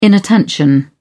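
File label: "inattention", British English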